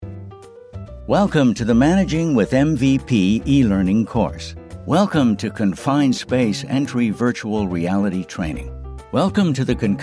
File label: eLearning